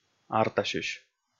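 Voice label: "артасьысь", Komi, male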